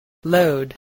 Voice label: "load", spelling